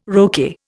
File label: "roquet", Indian